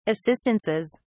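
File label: "assistances", British English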